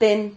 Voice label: "ten", silabas

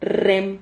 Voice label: "rrem", silabas

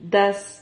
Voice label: "daz", Silabas

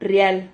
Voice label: "rrial", Silabas

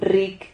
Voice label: "rric", silabas

